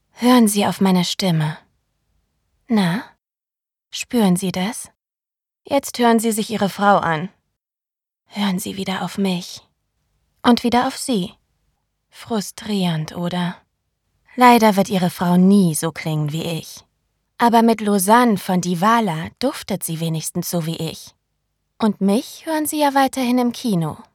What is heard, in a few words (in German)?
Werbung Meine Stimme